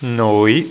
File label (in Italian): "noi", italiaans